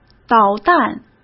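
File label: "导弹", Mandarin Chinese; chinese-flashcards; hsk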